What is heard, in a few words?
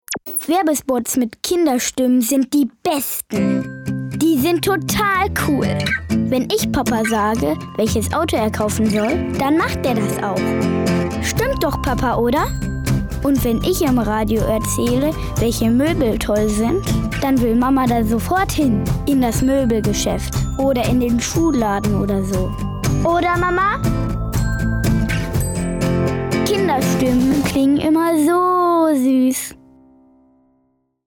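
German male commercial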